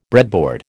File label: "breadboard", American